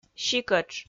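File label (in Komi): "сикӧтш", Komi, female; kpv audio dict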